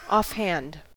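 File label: "offhand", American English; pronunciations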